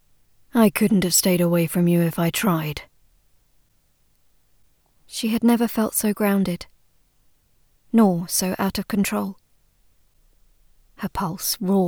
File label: erotic sample, voices